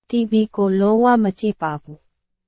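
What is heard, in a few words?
Burmese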